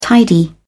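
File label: "tidy", British English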